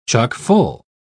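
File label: "chuck-full", British English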